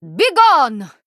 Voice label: Female Draenei TRIGGER SHADOW WORD DEATH